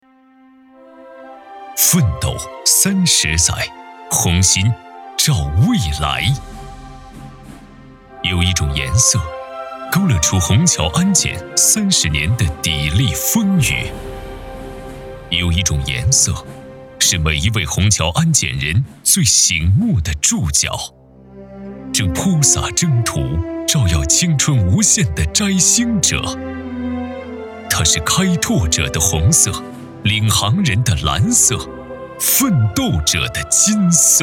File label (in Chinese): 男 专题类; 上海虹桥机场安检部创建三十年